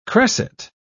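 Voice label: "cresset", British English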